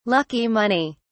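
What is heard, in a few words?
"lucky money", British English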